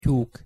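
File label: "tyuk", Hungarian pronunciation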